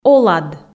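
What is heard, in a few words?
"олад", Komi, female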